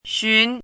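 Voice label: "xun2", Mandarin pinyin syllable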